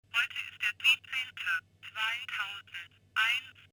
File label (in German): Datumsansage